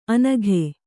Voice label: "ಅನಘೆ", Kannada

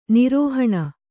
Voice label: "ನಿರೋಹಣ", kannada

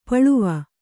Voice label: "ಪೊಳವೆ", Kannada

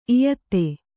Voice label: "ಇಯತ್ತೆ", kannada

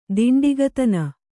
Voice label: "ದಾಂಡಿಗತನ", kannada

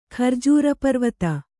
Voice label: "ಖರ್ಜೂರಪರ್ವತ", Kannada

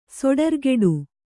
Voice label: "ಸೊಡರ್ಗೆಡು", Kannada; soḍargeḍu

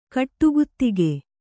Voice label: "ಗಡಿಗುತ್ತಿಗೆ", Kannada

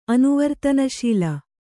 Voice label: "ಅನುವರ್ತನಶೀಲ", Kannada

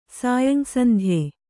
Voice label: "ಸಾಯಂಸಂಧ್ಯೆ", kannada

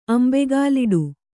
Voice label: "ಅಂಬೆಗಾಲಿಡು", Kannada

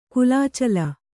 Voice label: "ಕುಲಾಚಲ", Kannada

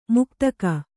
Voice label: "ಮುಕ್ತಕ", Kannada

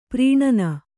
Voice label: "ಪರಾನ್ನ", Kannada

